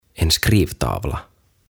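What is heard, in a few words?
"En Skrivtavla", "Kirjoitustaulu", Swedish, Finnish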